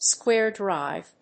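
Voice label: "square drive", English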